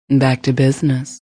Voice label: Back To Business, Female; Sex; Sex Sound Effects